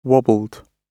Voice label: wobbled, gb

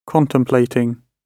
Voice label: contemplating, gb

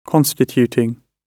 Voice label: constituting, gb

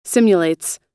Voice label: "simulates", US

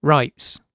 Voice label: writes, gb

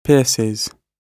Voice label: "pierces", GB

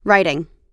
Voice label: writing, us

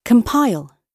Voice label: "compile", gb

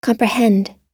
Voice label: "comprehend", us

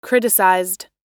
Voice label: "criticized", us

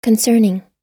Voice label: concerning, us

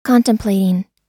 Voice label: contemplating, us

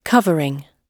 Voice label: "covering", gb

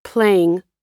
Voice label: "playing", US

